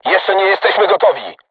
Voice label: voice message art reloading, crew tanks polish